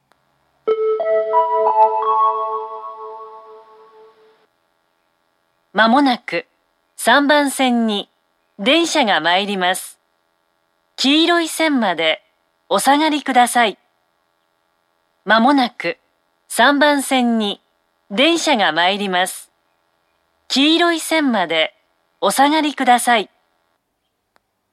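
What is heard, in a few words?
platform 3 approaching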